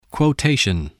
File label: "quotation", english